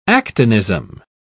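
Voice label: "actinism", British English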